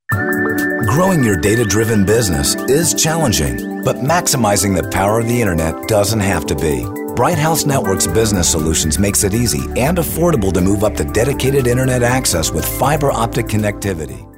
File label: friendly